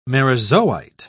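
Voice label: "merozoite", British English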